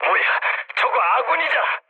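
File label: Korean player killed ally mood low; War Thunder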